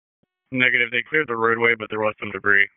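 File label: EMS Dispatch; wake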